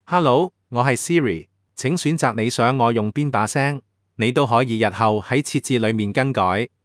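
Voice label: Chinese, Hong Kong; iOS 26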